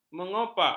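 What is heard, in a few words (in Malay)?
"mengopak", Malay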